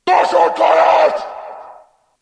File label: orc